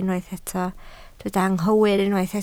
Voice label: "anghywir", Welsh